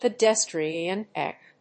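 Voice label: "pedestrian deck", English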